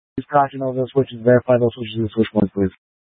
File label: Light Rail Emergency, scanner